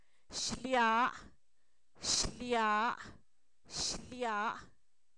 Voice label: calentura, Zapoteco, san miguel albarradas; enfermedades

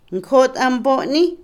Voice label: establo, Otomí, mujer; Animales; INALI

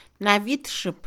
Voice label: vestirse, Mixe, Mujer; Ropa; INALI

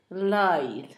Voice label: diente, Zapoteco, Asunción Ocotlan; Cuerpo; INALI; Haspelmath

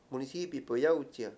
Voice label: "ministro", Mayo; Mundo moderno

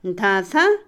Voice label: Otomí, mujer; lugar para trillar; INALI

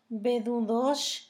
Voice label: tornado, Zapoteco; Fenómenos; San Pedro Mártir; INALI

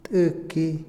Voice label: chico, Otomí, hombre; INALI

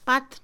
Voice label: Mixe, Mujer; escoba; Acciones; INALI

